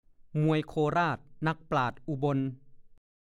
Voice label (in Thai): "มวยโคราชนั่กปราชญ์อุบล", ภาษาโคราช